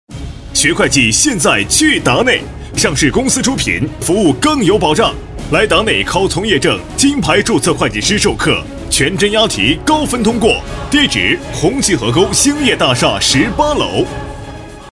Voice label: 学会计 男 大气激情; 15S

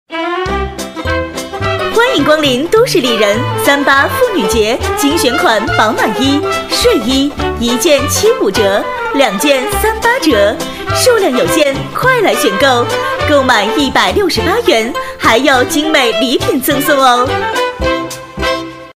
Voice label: 促销 内衣三八节促销女 活力激情; 都市丽人

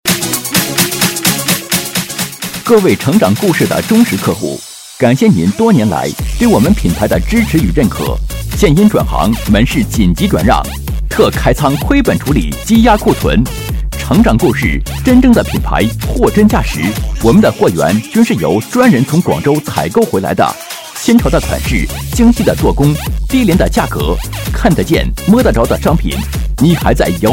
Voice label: 【促销】成长故事服装男 磁性激情

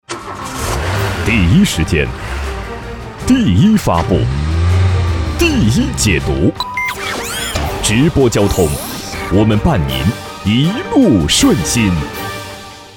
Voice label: 包装 男; 《一路顺心》

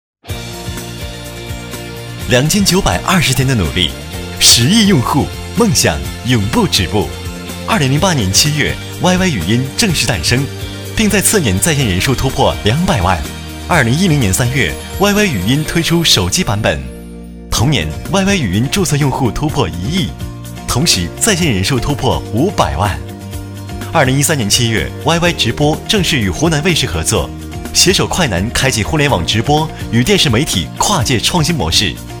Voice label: 【专题】青春积极男

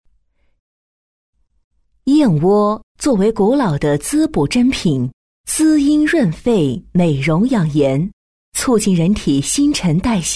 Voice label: 专题 知性燕窝

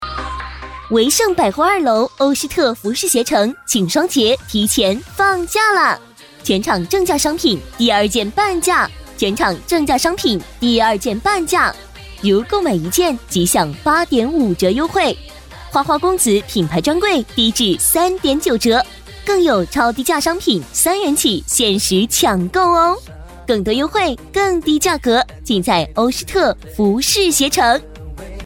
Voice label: 促销 女 甜美; 百货鞋服节前放价

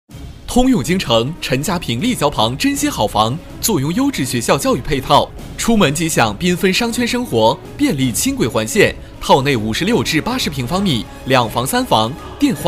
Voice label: 促销 地产男 年轻欢快; 通用晶城

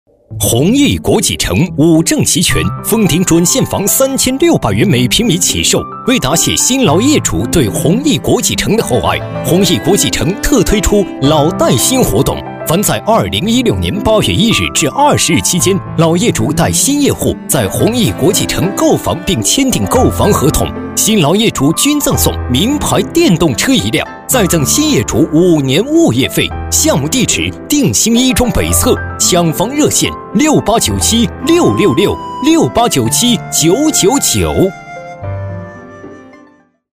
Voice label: 地产 男 激情; 宏屹国际城

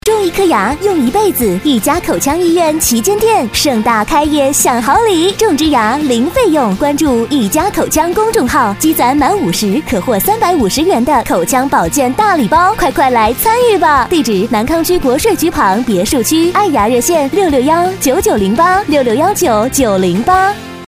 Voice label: 广告 女 甜美; 逸佳口腔医院女1

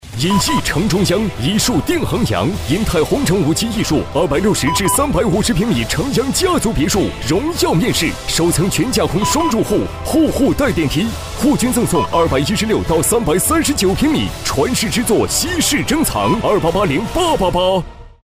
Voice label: 广告 激情有力, 男; 衡阳银泰红城

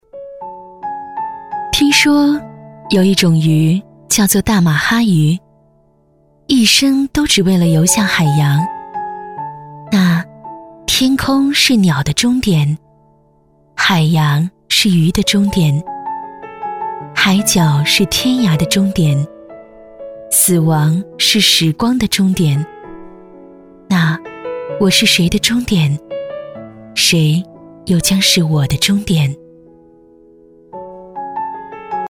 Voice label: 旁白 微电影旁白 女 自然讲述

